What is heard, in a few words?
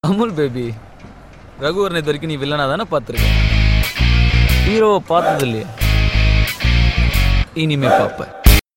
mass dialogue; dhanush